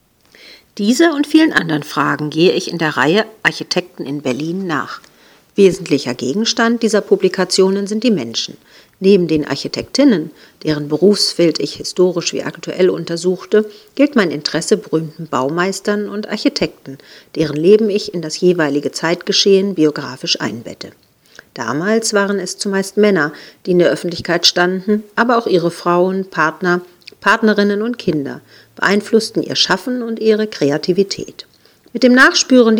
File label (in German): Sprachmemo; Publikationen